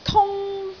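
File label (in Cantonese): "tung1", Cantonese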